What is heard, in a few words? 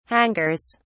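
"hangers", British English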